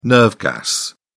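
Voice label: "nerve gas", British English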